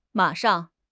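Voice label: "ma3 shang4", Chinese; HSK; HSK3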